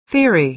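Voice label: "theory", American English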